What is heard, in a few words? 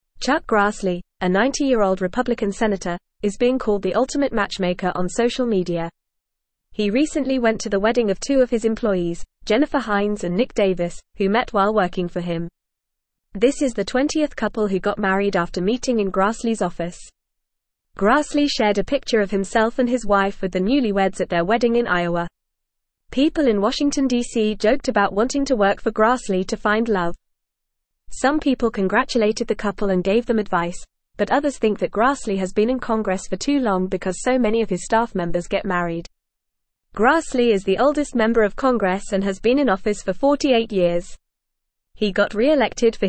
English Newsroom Upper Intermediate FAST Reading; 90 year old Senator Grassley dubbed ultimate matchm